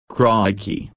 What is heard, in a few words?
"crikey", Reino Unido; girias